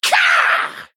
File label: Female Arakkoa Attack